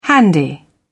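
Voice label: "handy", British English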